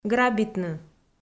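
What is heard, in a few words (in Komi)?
"грабитны", Komi, female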